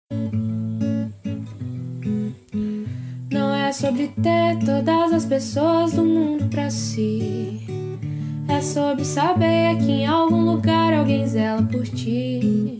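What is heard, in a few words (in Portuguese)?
FELIZ ANIVERSARIO male, Telemensagem Feliz Aniversário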